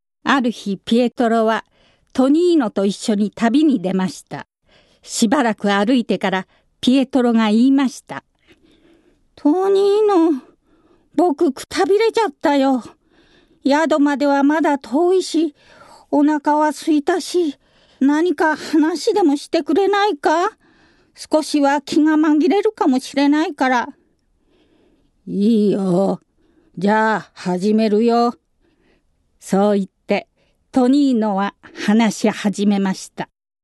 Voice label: reading, female voice